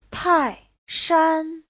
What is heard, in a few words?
"tai4shan1", Chinese; 泰山; Núi Thái Sơn